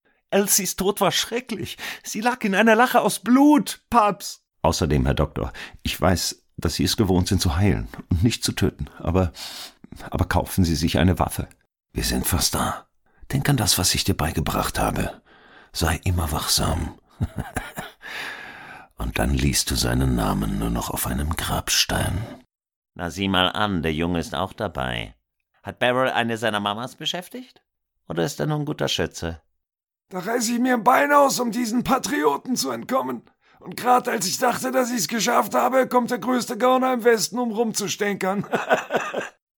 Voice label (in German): Hoerspiel Italo Western 5 Characters